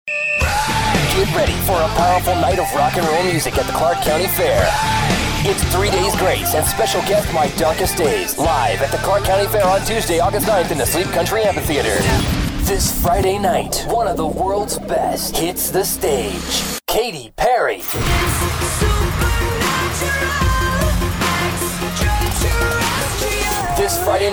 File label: Imaging Voice